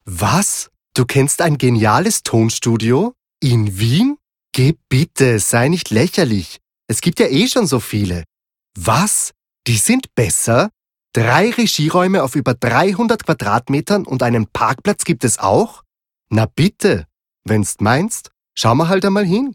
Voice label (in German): laechelnd